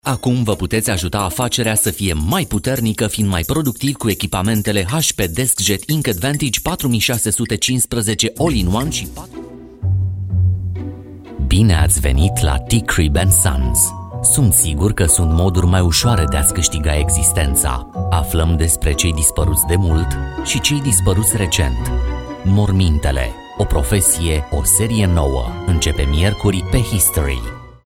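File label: Romanian commercial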